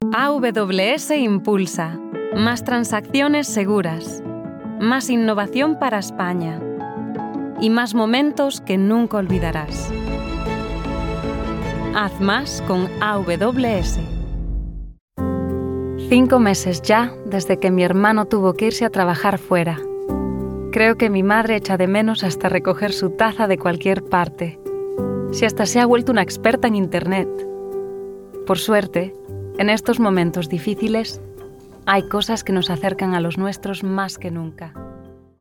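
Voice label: Spanish Commercials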